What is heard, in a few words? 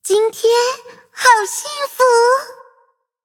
victory, zh-hant